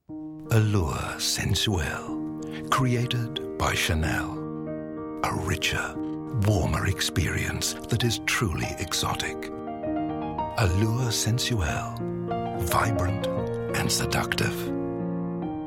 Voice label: soft